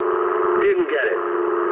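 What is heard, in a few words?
English bomb failure mood low; War Thunder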